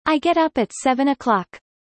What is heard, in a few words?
fast